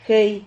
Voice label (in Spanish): "jey", Silabas